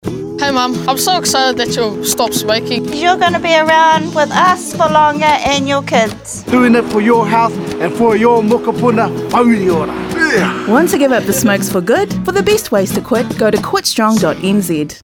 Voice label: Radio; QuitStrong Health